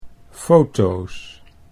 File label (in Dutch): "fotoos", Dutch